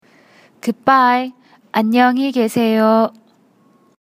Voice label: Goodbye Korean

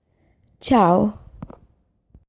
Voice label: Portuguese Goodbye

